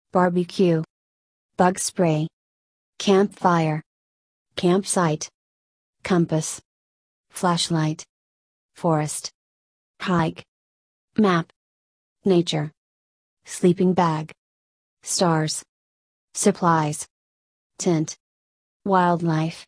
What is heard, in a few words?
usa; camping